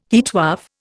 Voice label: "heatwave", Indian

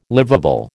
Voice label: "liveable", American

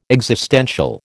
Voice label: "existential", American

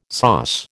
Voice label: "SOS", American

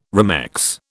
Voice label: "remex", British

